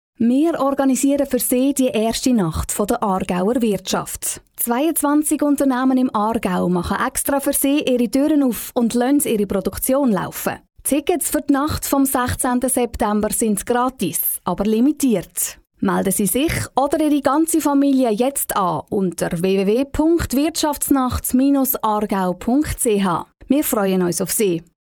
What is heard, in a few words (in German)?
Radio Spot; AIHK